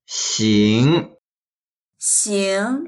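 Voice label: "xing2", Mandarin Chinese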